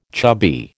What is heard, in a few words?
"chubby", American